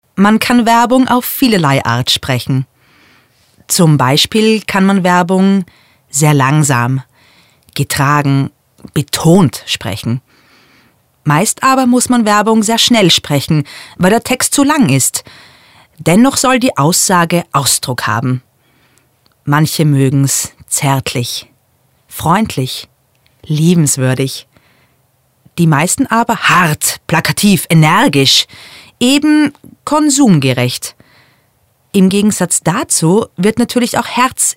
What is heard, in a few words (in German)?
Werbetext; Demo